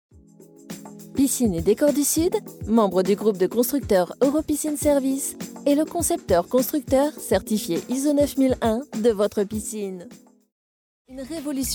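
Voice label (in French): French, female, commercial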